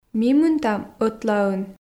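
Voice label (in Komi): ми мынтам ӧтлаын, Komi, female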